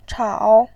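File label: "chao", Mandarin Chinese, third tone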